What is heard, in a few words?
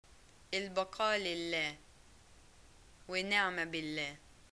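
greeting death, Egyptian Arabic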